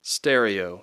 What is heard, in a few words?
"stereo", English